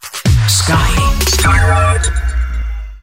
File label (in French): VIRGULE PUB; SKYROCK